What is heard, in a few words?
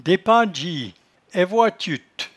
"depadgie", patois jura